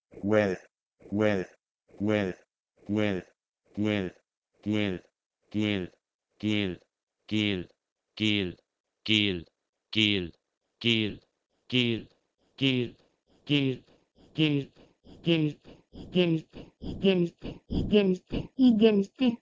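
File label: Proto-Indo-European gwelh1 to Lithuanian igelti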